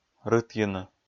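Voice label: "рытйыны", Komi, male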